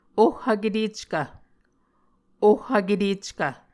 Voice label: "oh vketeckv", Muscogee